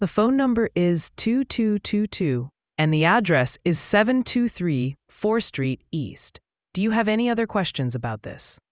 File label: question english